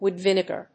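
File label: "Wood vinegar", English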